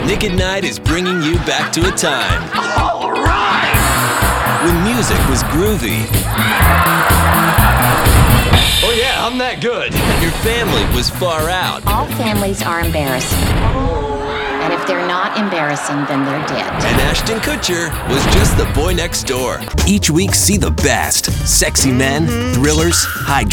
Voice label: promo, men